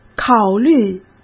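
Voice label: "考虑", Mandarin Chinese; hsk; chinese-flashcards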